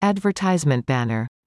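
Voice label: "advertisement banner", English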